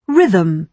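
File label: "rhythm", British English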